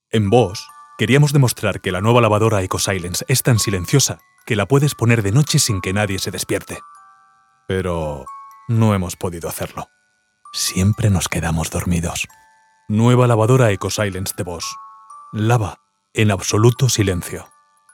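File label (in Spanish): corporativo, Locución publicitaria; locutor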